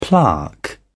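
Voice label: "plaque", British English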